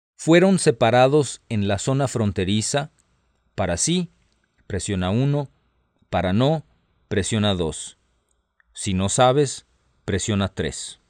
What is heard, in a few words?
Spanish, hotline